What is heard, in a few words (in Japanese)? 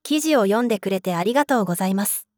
女性